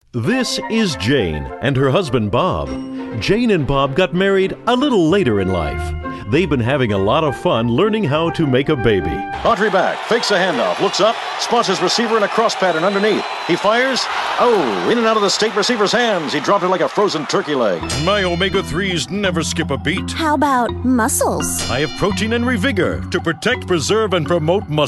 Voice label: Retro Announcer